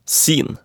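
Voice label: "sin", Norwegian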